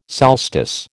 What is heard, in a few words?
"solstice", American